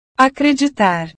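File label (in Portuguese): "acreditar", Portuguese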